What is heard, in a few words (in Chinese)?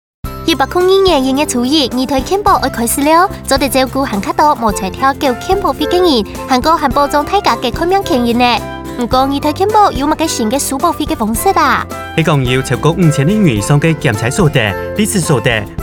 客語四縣腔, 中央健康保險局; 30秒